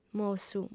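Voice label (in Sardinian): "mossu", female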